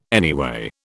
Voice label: "anyway", British